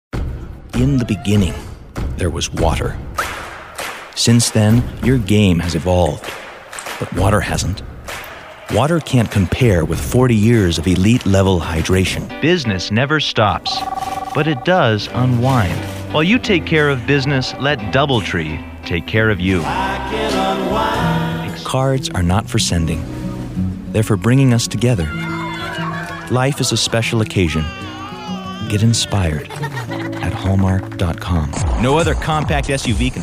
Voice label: Commercial